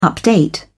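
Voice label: "update", British English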